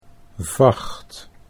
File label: "vacht", Dutch